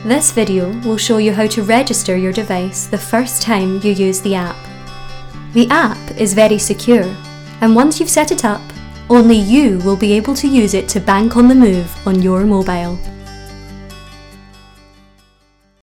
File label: Scottish voice over Corporate E-Learning